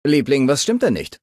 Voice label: Male adult default talk

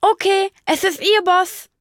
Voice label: Male unique dialogue

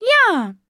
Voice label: Male unique dialogue

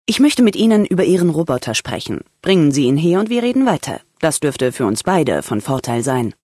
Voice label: Female adult freeform greeting

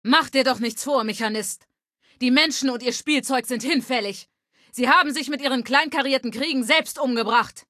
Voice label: Female adult default battle; Fallout 3: Audiodialoge

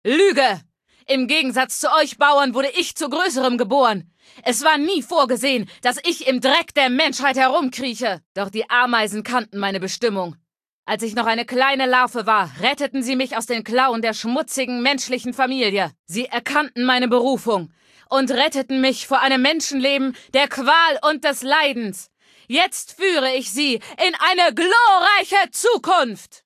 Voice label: Female adult default

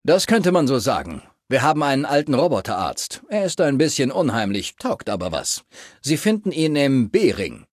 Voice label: Maleadult default dialogue

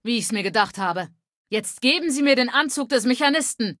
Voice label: Female adult

